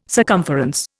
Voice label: "circumference", Indian

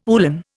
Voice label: "uhlan", Indian

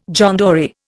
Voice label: "John Dory", Indian

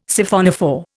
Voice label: "Siphonophore", Indian

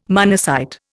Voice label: "monocyte", Indian

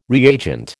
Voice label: "reagent", American

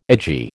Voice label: "edgy", American

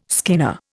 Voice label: "skinner", Indian

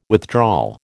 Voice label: "withdrawal", American

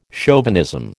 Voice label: "chauvinism", American

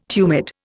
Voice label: "tumid", Indian

